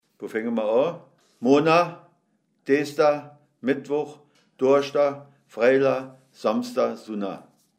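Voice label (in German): wochentage, O-Ton Hunsrück; Mittelstrimmig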